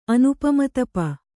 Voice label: "ಅನುಪಮತಪ", Kannada